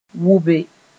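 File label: "wʋbe", Mooré; vomit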